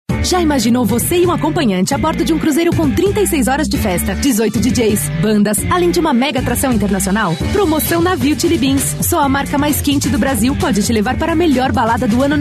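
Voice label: Brazilian Portuguese, female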